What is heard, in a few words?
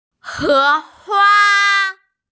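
"he hua", Mandarin Chinese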